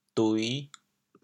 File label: "dui5", chaoyang